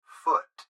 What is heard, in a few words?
Gps Voice, Male; Speaker Adult Male; Recorded Voice